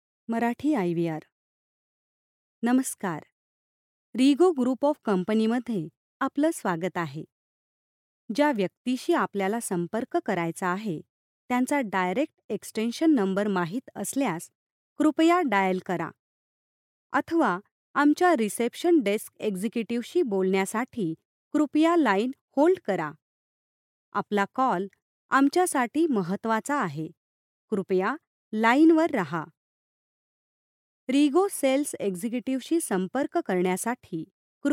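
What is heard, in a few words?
Marathi, female, IVR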